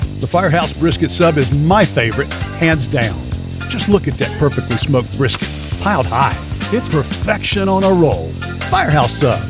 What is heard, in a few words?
Fast Food Promo; Firehouse Subs